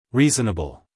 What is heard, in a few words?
"reasonable", US male; 1000 hours